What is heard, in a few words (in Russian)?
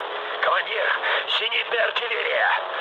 damaged aa, mood low, speech ru; War Thunder